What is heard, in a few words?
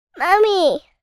human child 4 years boy say "mummy"; zapsplat